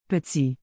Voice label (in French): "petit", Canadian French; Québec